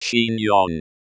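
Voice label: "chignon" pronunciation British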